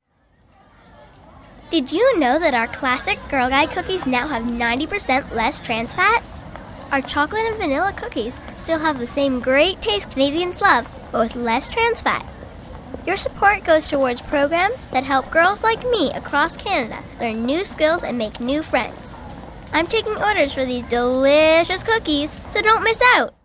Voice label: radio; Girl Guides

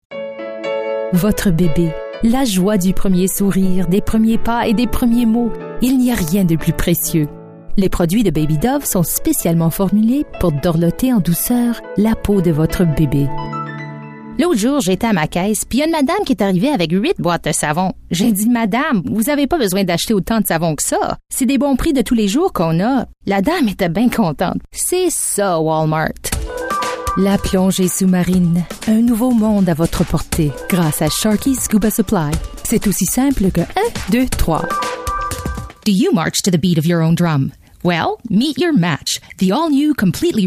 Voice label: French; Toronto Canada

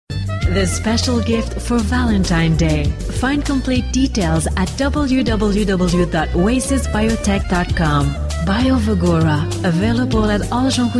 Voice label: english, voice talent; Toronto Canada

